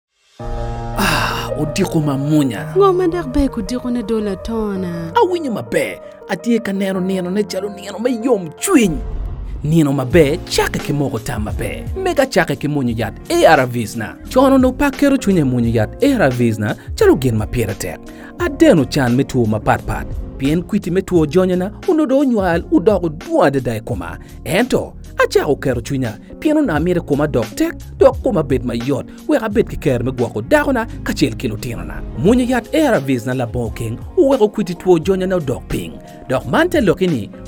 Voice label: HIV Spot Acholi, radio spot; Viral Load Suppression

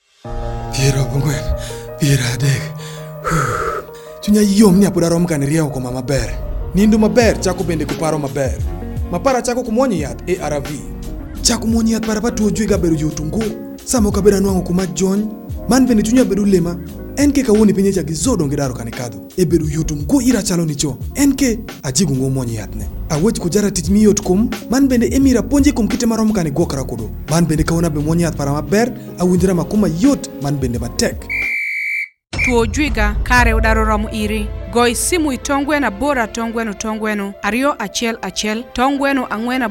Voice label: Time Up HIV Spot New on Treatment Alur

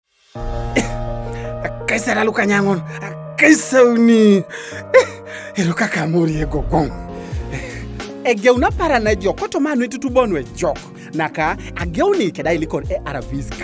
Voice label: Time Up HIV Spot New on Treatment Ateso

